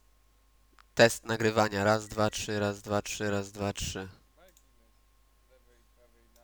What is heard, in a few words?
mic test